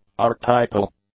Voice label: "archetypal", English; adjectives